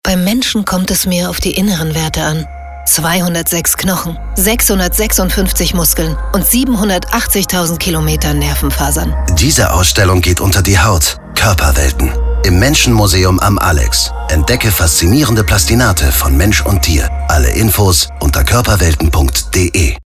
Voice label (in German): Radio; Koerperwelten